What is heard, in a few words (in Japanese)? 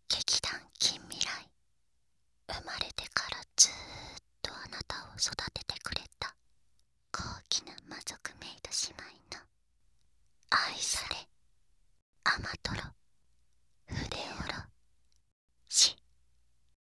BGM OFF ver タイトルコール; 生まれてからずっと貴方を育ててくれた高貴な魔族メイド姉妹の愛され甘とろ筆おろし